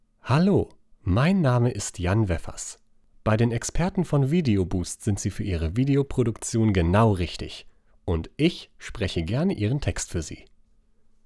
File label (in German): Vorstellung Sprecher deutsch